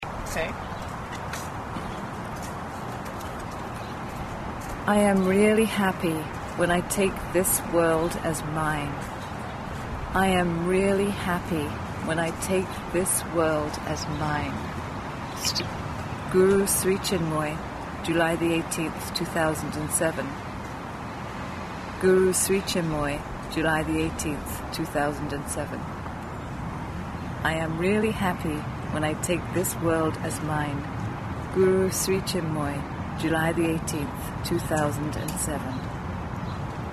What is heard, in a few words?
poem